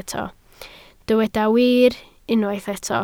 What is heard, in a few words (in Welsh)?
"wir", Welsh